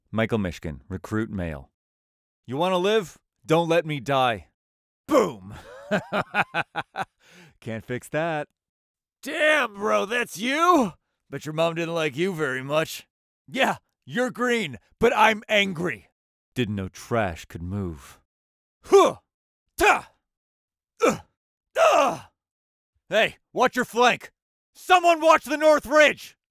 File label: Recruit Male